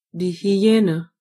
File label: hyena, de; gcompris